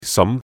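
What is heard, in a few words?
"sam2", Cantonese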